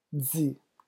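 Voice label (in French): "dzit", French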